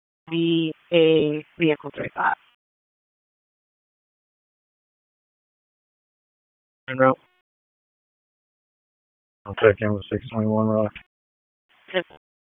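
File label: Police Southwest District Dispatch, p25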